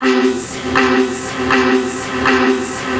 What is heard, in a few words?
VOICE FX, Drum Bass, 160; eJay